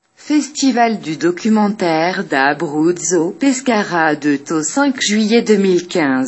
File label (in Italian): francese